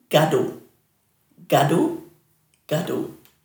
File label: "gadhu", Dhurga